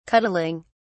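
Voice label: "cuddling", British English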